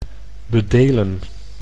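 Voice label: "bedelen", Dutch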